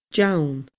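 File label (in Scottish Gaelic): "deann", Scottish Gaelic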